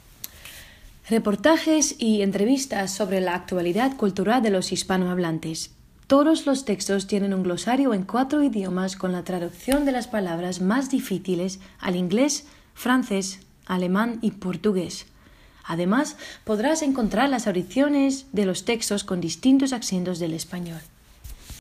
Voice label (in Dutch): Stemvoorbeeld Spaans